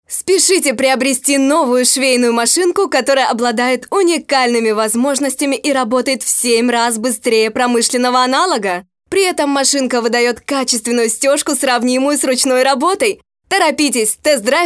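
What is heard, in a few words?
Russian female Active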